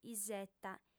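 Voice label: "iseta", Sardinian, female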